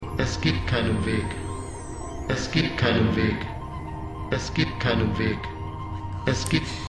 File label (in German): Automatische Walstimme; Extrapolations